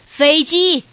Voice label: "feiji", woman; ningxia